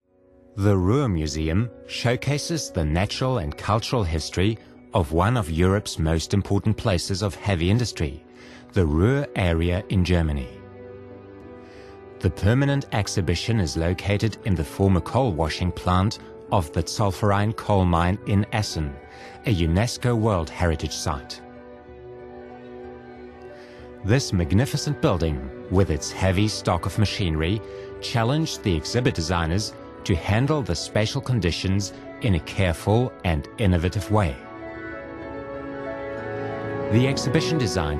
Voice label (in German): Imagefilm englisch